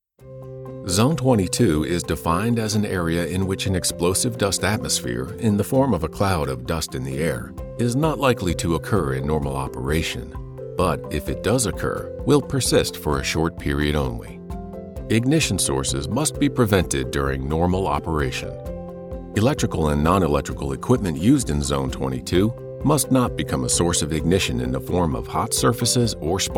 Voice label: Technical Explainer